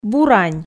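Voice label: "бурань", Komi, female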